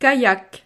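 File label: "kayak", French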